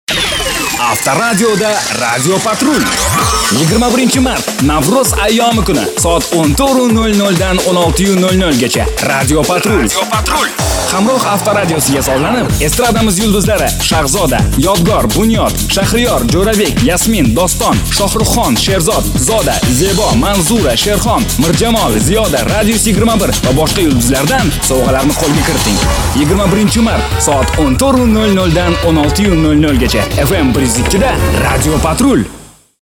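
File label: Uzbek General; GoLocalise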